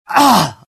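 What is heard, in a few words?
death, dialogue generic Italian; mohaa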